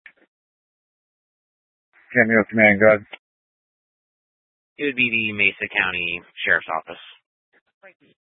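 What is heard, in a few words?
Incident Tac 2, Scanner audio recording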